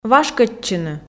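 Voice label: "вашкӧдчыны", Komi, female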